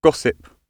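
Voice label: "gossip", British English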